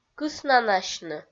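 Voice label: "кыснанасьны", Komi, female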